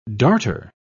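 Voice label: "darter", English, British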